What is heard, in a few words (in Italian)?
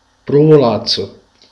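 "polvere", Italian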